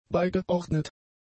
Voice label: "beigeordnet", German; adjectives